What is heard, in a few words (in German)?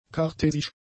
"kartesisch", German; adjectives